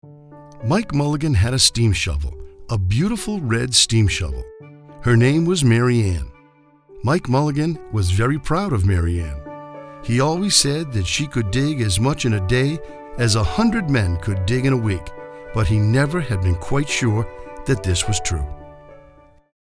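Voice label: story time, Voiceover Actor and Narration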